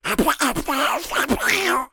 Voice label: cartoon duck voiced by human speaking

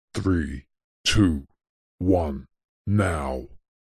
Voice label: voice countdown male 3 2 1 now chorus

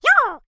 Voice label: cartoon character high pitched voice says "yo"

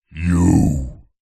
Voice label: horror evil demonic voice male says "you"

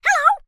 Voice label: cartoon character high pitched voice says "hello"

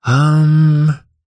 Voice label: human male middle aged says umm

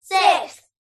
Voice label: children x5 under 10 english say "six"; zapsplat

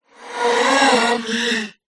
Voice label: horror voice ghostly says "mummy"

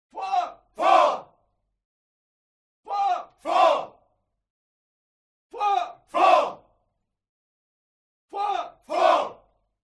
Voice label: CROWD Military Shout English "Four"; HUMAN; smartsound

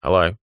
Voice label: human male australian middle aged says hello

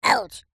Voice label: cartoon voice high pitched says ouch

